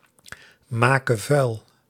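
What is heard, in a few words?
"maken vuil", Dutch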